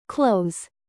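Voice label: "produce", verb, British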